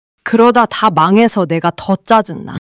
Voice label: anger

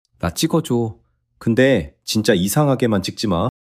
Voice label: shy

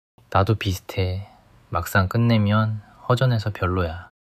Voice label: sadness

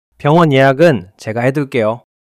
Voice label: neutral

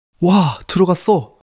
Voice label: excitement

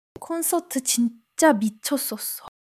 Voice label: excitement

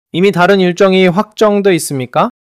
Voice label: neutral

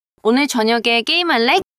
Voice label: neutral

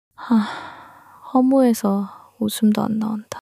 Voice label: sadness

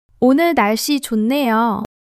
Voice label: joy

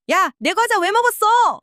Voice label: anger

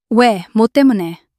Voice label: fluster

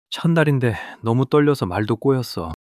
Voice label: fluster

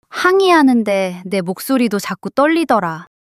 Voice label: fluster

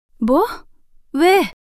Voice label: fluster

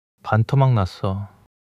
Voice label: sadness

